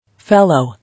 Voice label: "Fellow", English

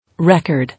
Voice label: "Record", English

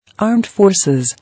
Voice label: "Armed forces", English

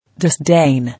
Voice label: "Disdain", English

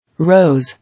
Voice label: "Rose", English

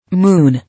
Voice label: "Moon", English

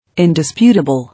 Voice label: "Indisputable", English

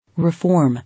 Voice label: "Reform", English

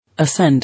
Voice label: "Ascend", English

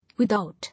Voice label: "Without", English

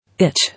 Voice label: "Itch", English

